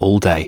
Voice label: all day, british bloke